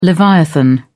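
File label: "leviathan", British English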